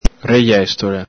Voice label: "rejestola", Venetian